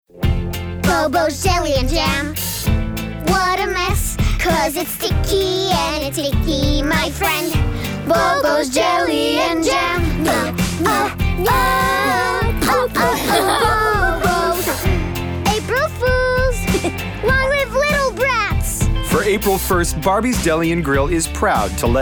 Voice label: Children; Snow Angel